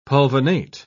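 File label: "pulvinate", British English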